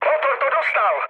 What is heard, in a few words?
damaged engine, speech cze, mood low; War Thunder